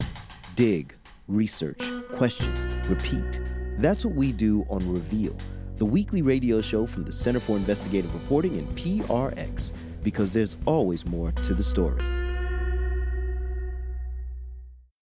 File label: Promo